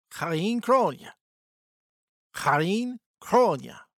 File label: greek happy new year